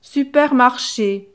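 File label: "supermarche", French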